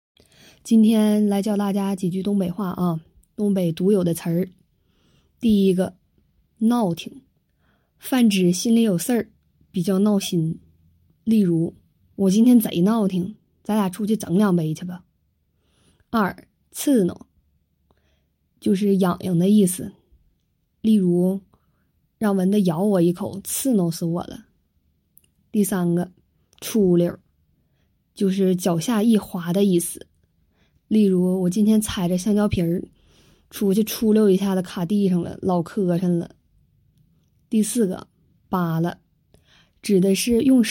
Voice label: 内蒙人的东北话

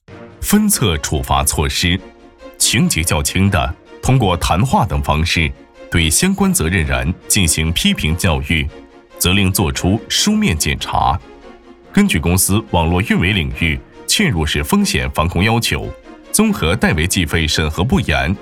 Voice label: 条例规定 公正严肃 男; 处罚措施

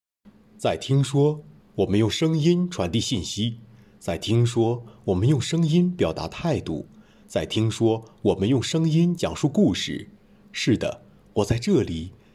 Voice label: 讲述类

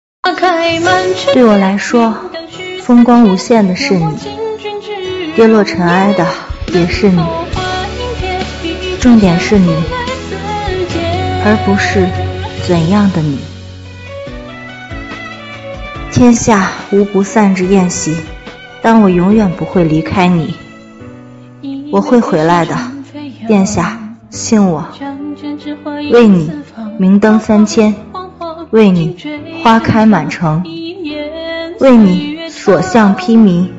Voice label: 女版; 女版天官赐福

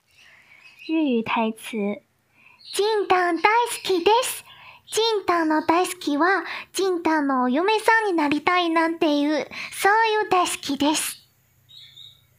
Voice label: 日语 台词

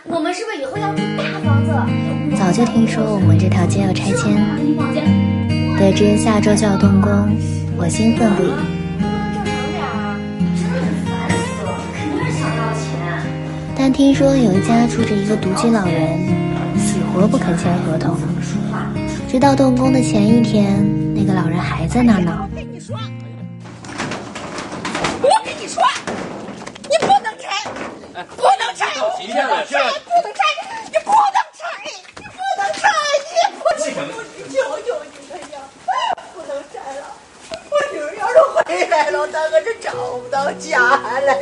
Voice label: 抖音账号镜面旁白配音出演

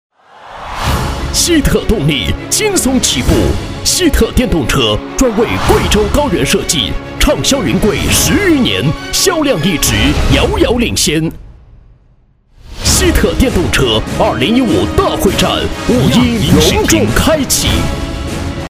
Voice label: 促销激情电动车广告

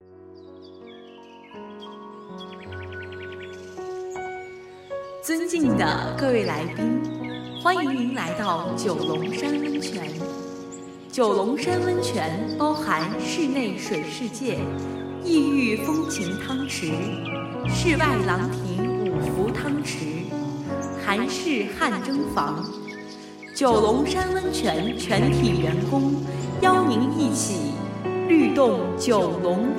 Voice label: 温泉广告配音

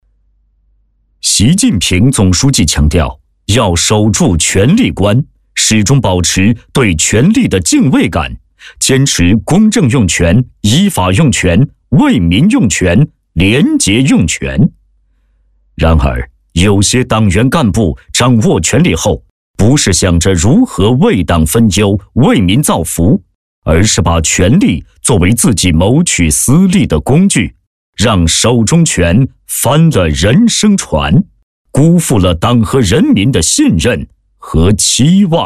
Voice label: 专题 警示教育片 样音

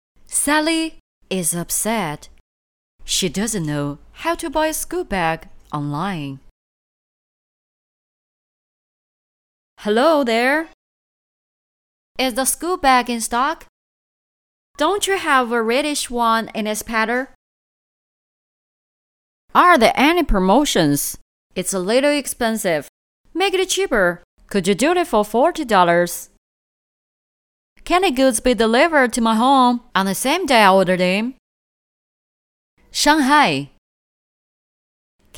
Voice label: 英文 角色 女 配音

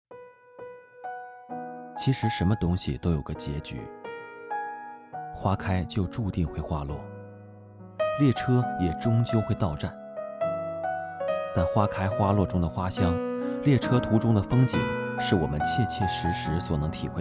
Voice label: 情感类

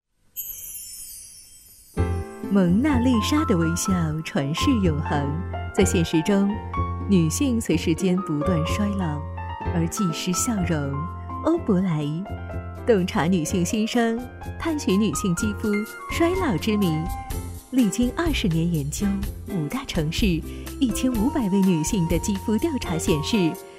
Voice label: 优雅成熟; 欧珀莱

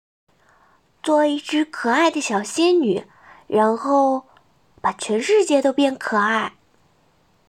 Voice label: 萝莉

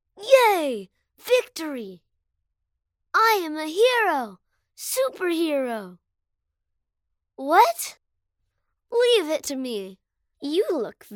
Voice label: 美式真童动画专题海底食物链

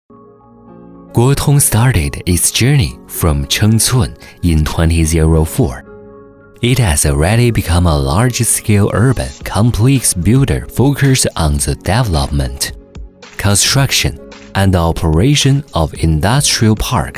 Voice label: 英文 广告 宣传片; 国通集团

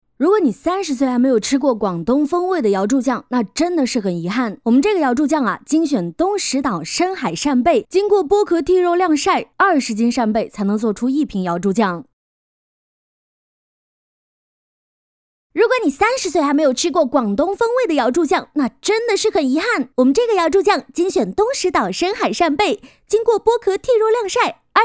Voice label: 音色 风格 带货

